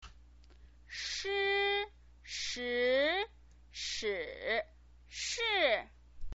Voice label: "shi", Chinese pinyin voice

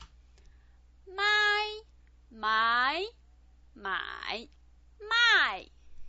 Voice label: "mai", Chinese pinyin

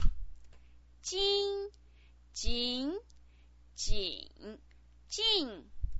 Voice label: "jin", Chinese pinyin

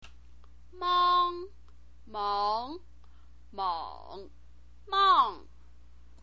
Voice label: "mang", chinese pinyin

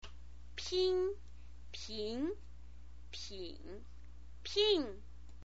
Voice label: "pin", Chinese pinyin voice

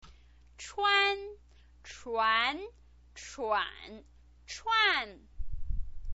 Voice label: "chuan", pinyin

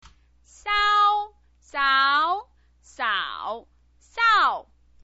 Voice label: "sao", Chinese pinyin

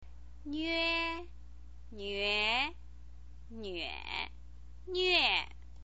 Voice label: "nve", chinese pinyin

